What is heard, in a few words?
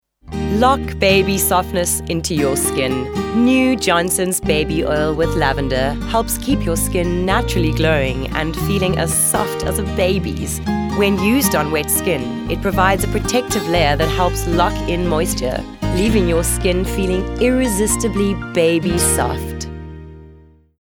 Softsell